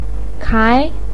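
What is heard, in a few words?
"kai", Mandarin Chinese, second tone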